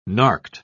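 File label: "narked", British English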